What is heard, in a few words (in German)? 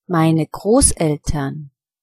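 "grosseltern", German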